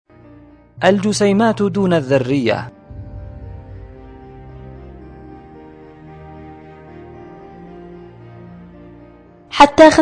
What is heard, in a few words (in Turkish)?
arapca; kuran mucizeleri; atom alti parcaciklar